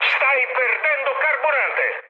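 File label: Italian tank damaged mood high, speech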